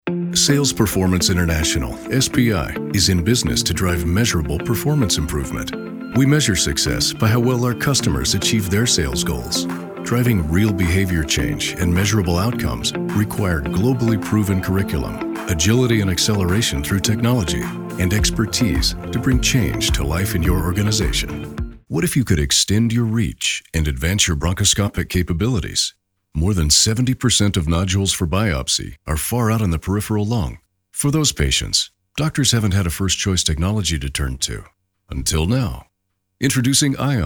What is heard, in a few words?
Narration